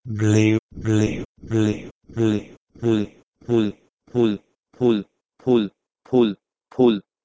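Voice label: Proto-Indo-European bhleh3 to Bengali phul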